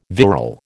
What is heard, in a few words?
"virile", American